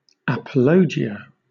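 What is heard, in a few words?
"apologia", British English; pronunciations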